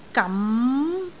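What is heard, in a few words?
"gam2", Cantonese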